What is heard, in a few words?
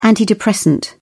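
"antidepressant", British English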